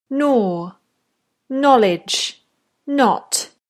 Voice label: gnaw knowledge knot, British accent; Silent Letters in English; Navigating Silent Letters in English: A Guide to Accurate Pronunciation